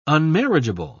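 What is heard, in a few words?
"unmarriageable", English, British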